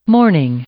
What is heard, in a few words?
"morning", English; noun